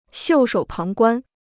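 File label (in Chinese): "袖手旁观", Chinese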